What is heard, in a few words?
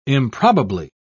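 "improbably", British English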